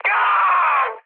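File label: Korean damaged pilot mood high; speech; War Thunder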